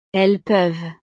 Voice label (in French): "elles peuvent", frances